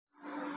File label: Voice Note